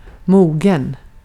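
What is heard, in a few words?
Swedish vocabulary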